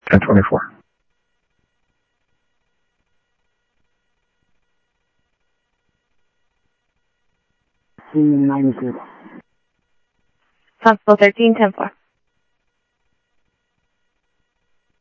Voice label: Central Sheriff Central, scanner audio trunk

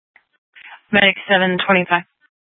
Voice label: Sheriff, scanner P25; Shrf Lakes

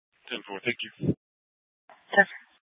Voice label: Scottsdale PD Sth Dispatch, scanner

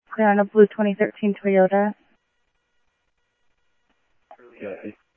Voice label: Mesa Simulcast, Mesa PD, Police Dep

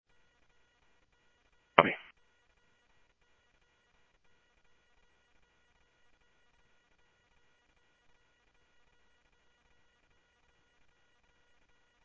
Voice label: Phoenix PD, scanner; PHOENIX PD-200 A; ThompsonPeakHigh

